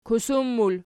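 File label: "kusum", plural, Archi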